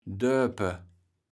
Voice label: "dööpe", kaevels platt

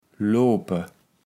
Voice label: "loope", kaevels platt

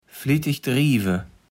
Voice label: "flitteg Driewe", kaevels platt

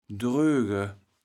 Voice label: "dröge", kaevels platt

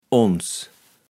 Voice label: "ons", kaevels platt

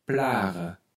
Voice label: "plare", kaevels platt

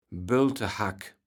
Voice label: "Böltehack", kaevels platt

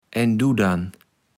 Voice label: "en du dann", kaevels platt

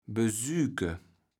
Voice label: "besüke", kaevels platt

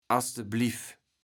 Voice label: "astebliff", kaevels platt

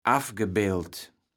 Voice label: "affgebeld", kaevels platt

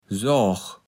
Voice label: "soag", Platt

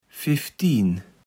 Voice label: "fiftiehn", kaevels platt

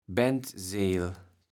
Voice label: "Bendseel", kaevels platt

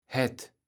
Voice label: "hät", kaevels platt

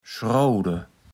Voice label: "schrawde", Platt

